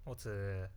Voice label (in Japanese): やる気のないおつー